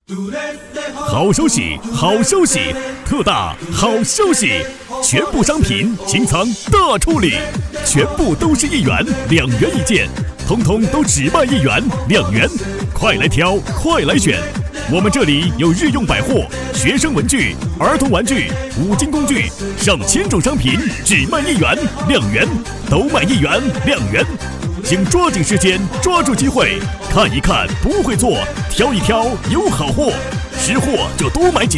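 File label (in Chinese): 好消息, 浑厚大气 男 普通话 中年（36-54）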